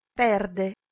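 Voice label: "perde", Proto-Indo-European; eastern origins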